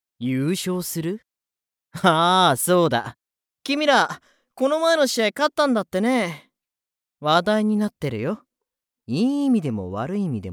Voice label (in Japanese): 嫌味な先輩キャラ